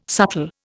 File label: "subtle", Indian